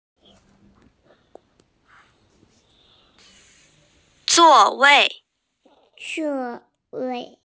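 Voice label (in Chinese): "zuo4wei4", Mandarin Chinese, female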